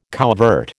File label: "couvert", American